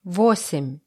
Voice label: russische zahl 20; Zahlen auf Russisch

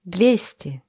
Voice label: russische zahl 20; russische zahl 200; Zahlen auf Russisch

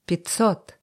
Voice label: russische zahl 20; russische zahl 500; Zahlen auf Russisch

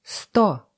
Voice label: russische zahl 20; russische zahl 100; Zahlen auf Russisch

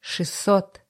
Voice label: russische zahl 20; russische zahl 600; Zahlen auf Russisch

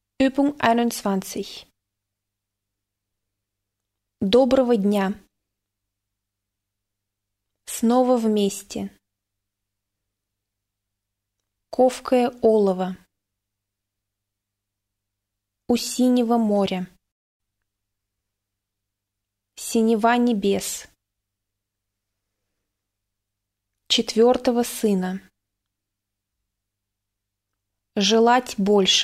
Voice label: russisch aussprache ogo ego; buske verlag

